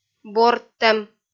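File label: "бордтӧм", Komi, female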